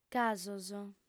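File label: "casos", female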